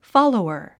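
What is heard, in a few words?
"follower", English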